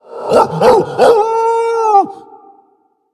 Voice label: Undead Beast Emote; Arfus; King Krush

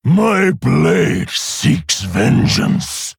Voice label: Male Orc Play

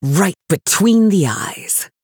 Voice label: Female HighElf TRIGGER DEADLY SHOT

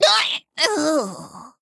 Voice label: Male Gnome Death

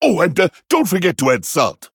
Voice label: Male Pandaren

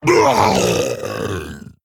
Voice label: Male Orc Ogre Death

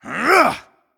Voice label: Male Night Elf Boss Attack

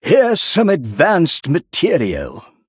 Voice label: Male Human

